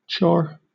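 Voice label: "chore", English, British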